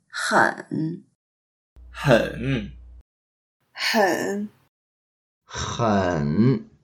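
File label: "hen3", Mandarin Chinese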